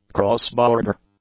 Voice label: "cross-border", English; adjectives